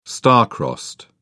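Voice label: "star crossed", British English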